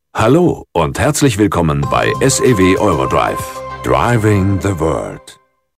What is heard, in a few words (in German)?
telefonansagen; sew eurodrive